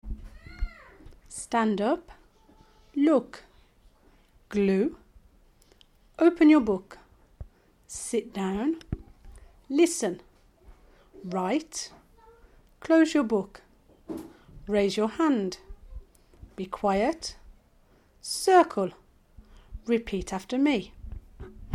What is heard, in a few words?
classroom instruction